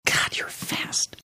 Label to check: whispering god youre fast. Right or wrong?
Right